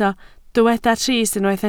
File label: "trist", Welsh